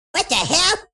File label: what, Furby